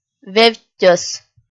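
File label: "вевттьӧс", Komi, female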